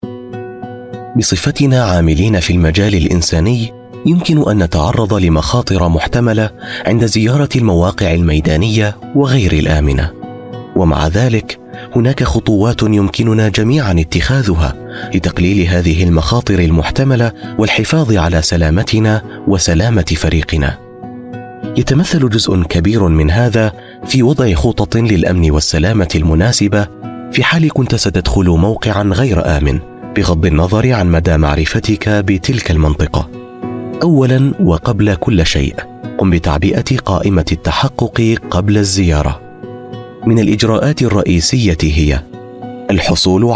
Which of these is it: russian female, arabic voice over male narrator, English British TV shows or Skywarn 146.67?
arabic voice over male narrator